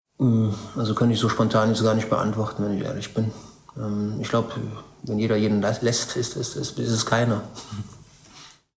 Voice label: kroatisch

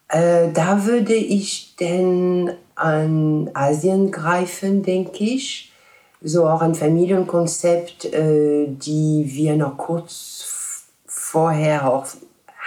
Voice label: franzoesisch

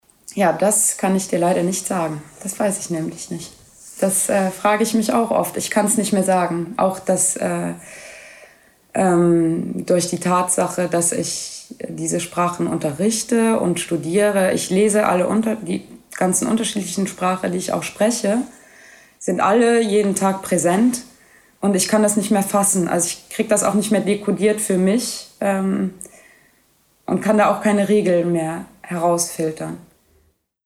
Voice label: luxemburgisch

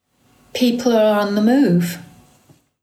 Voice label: englisch deutsch

